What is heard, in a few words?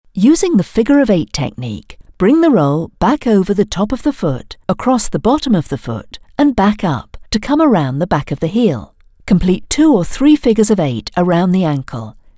english british explainer videos